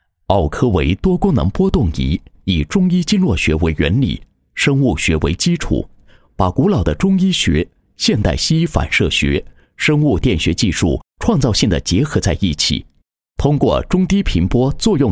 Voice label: 男, 产品解说 多功能波动仪视频配音; 奥科维

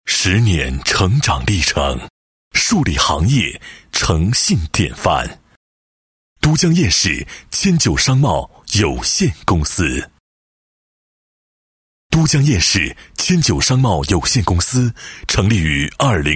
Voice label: 男-酒类, 配音案例; 都江堰五粮液

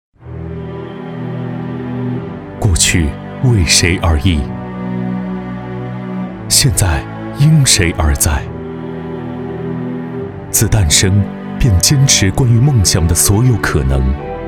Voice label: 男 品牌宣传片 科技感; 诠释每一纳米的传奇

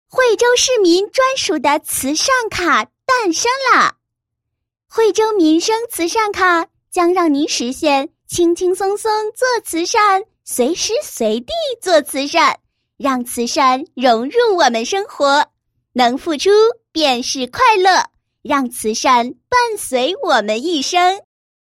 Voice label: 女 产品介绍 简介; 惠州民生慈善卡

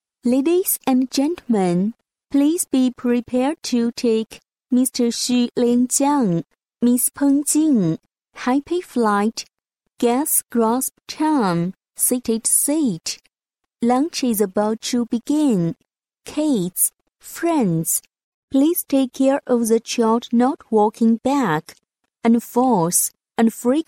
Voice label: 英女, 专题, 婚礼, 亲切甜美语音

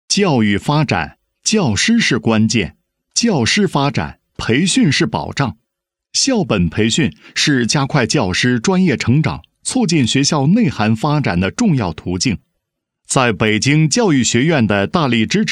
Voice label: 男, 项目介绍 校本培训项目宣传介绍

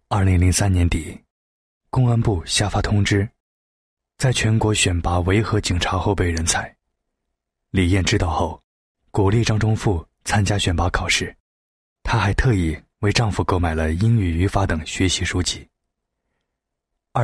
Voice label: 男 旁白, 浑厚男声; 微电影类

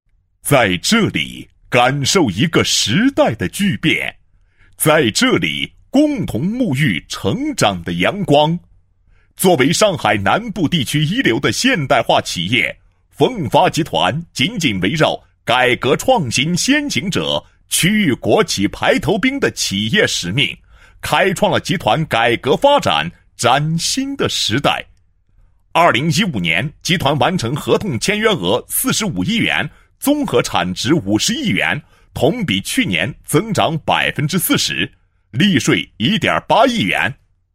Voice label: 男 企业; 上海奉贤发展(集团)有限公司

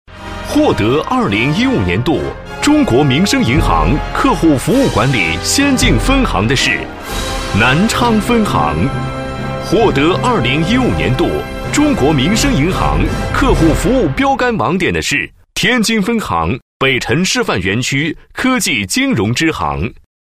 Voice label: 男 专题, 国语男声配音 磁性

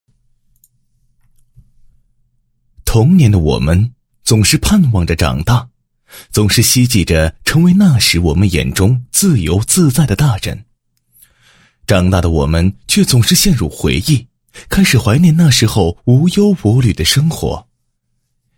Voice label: 男 人物; 我是玩家节目主人公

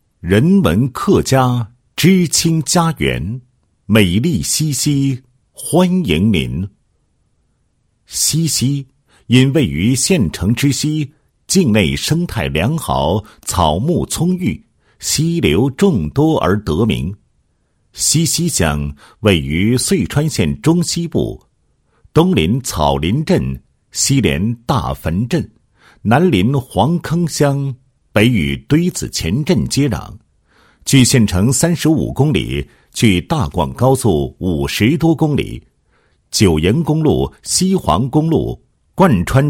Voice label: 男 专题片 解说词; 西溪专题片解说词

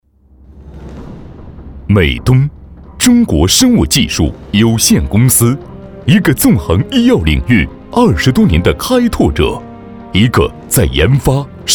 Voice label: 男 专题 大气浑厚专题 生物科技, 国语男声配音 浑厚; 美东

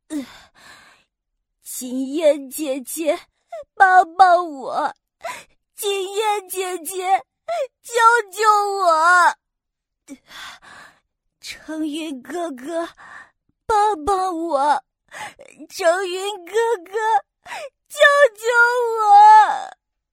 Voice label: 女 男童

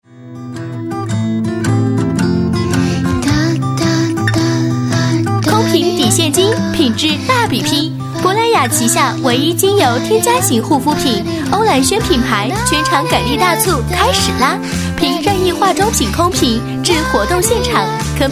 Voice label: 广告, 国语女声配音

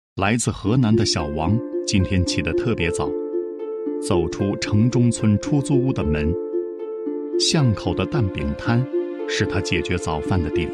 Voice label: 男 专题 舌尖风, 国语男声配音; 大气

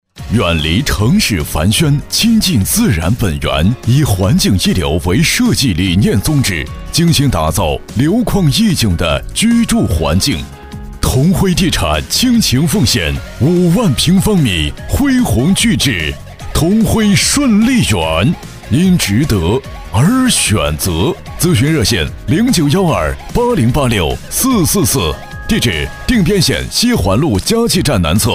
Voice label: 广告 地产

